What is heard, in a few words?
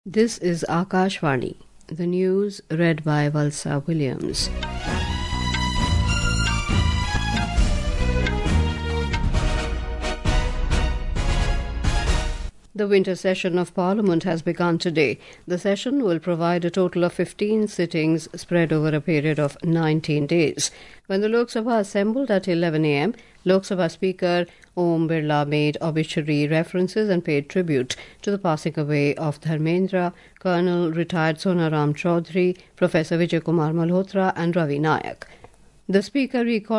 English; Larsen and Toubro Limited for acquisition of 3 cadet training ships